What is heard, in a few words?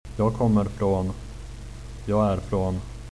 where from, swedish